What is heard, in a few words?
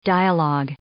"dialogue", English